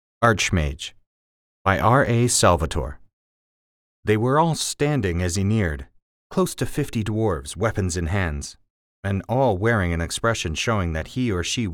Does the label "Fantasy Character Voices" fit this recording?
Yes